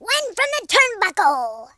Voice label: "fireball", American Wrestler

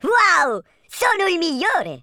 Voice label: flawless, speech Italian

